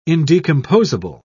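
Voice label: "indecomposable", British English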